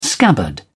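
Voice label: "scabbard", English, British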